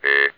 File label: A, votrax speech; vectrex